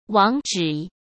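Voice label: "网址", Mandarin Chinese; hsk; chinese flashcards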